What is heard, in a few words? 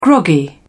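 "groggy", British English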